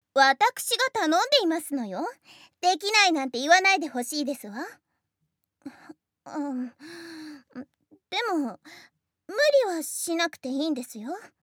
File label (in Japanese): おねだりボイス; レイカ1